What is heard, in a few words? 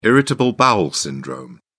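"irritable bowel syndrome", British English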